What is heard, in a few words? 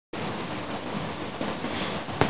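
"ceng2", Mandarin Chinese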